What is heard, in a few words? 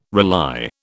"rely", British